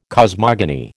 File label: "cosmogony", American